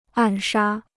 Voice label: "暗杀", Chinese

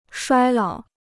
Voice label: "衰老", Chinese

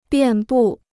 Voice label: "遍布", Chinese

